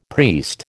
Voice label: "priest", American